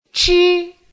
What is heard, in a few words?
"chi", pinyin